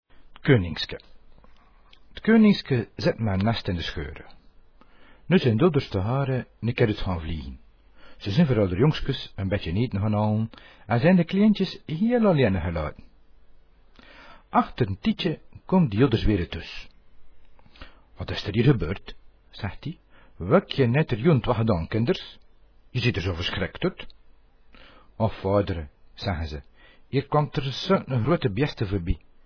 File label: westvlams